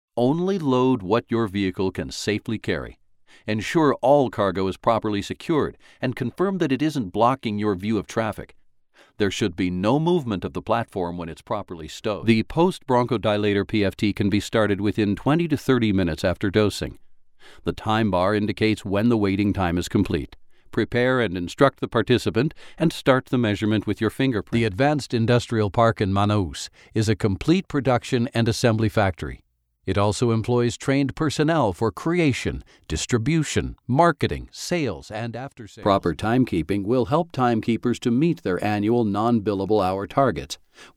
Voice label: eLearning General